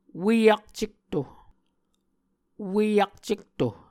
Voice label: "ue akcetto", Muscogee

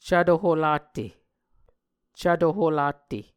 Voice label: "cvto holatte", muscogee

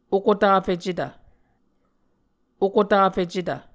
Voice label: "okotafecetv", Muscogee

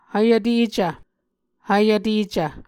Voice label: "hvyvtecv", Muscogee

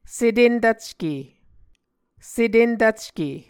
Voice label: "setentvcke", Muscogee

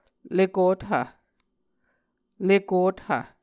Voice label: "lekothv", Muscogee

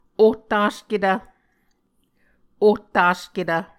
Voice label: "ohtasketv", Muscogee

